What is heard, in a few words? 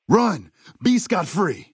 male adult greeting; Fnv